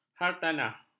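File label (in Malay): "hartanah", Malay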